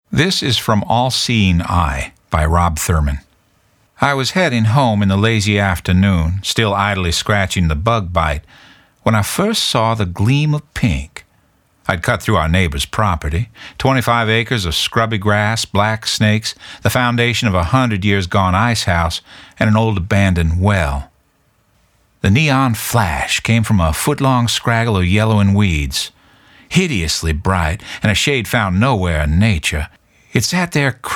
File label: Fiction Monologue